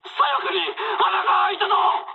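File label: Japanese left wing mood med; War Thunder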